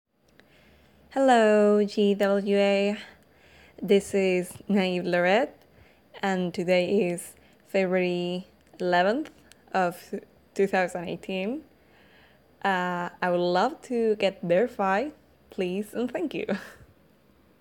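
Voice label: [F][Verification] Hi!, female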